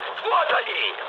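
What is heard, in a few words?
Russian enemy detected mood high, speech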